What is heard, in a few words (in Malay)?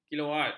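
"kilowatt", Malay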